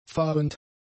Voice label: "fahrend", German; adjectives

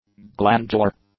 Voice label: "glandular", english; adjectives

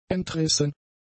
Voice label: "entrissen", German; adjectives

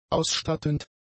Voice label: "ausstattend", German; adjectives

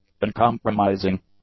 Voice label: "uncompromising", English; adjectives

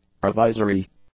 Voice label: "provisory", english; adjectives

